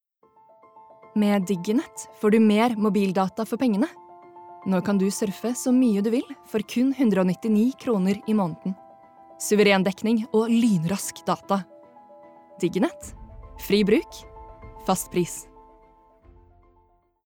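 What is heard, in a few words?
Norwegian advert, norwegian female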